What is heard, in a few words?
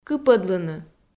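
"кыпӧдлыны", Komi, female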